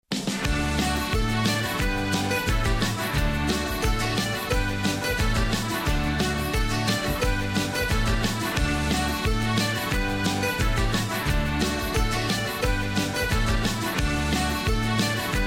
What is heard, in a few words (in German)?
Eifeler Mundart; Schwein gehabt!